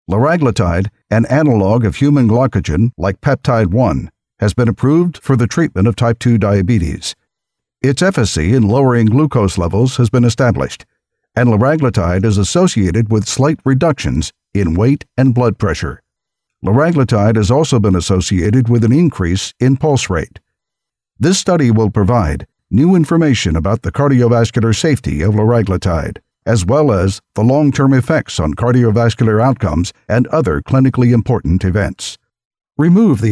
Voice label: eLearning Medical Male, Narration, Medical Narration